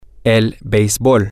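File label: Spanish, male; baseball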